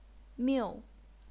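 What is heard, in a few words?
"miu", fourth tone, chinese pinyin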